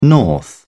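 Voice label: "north", British English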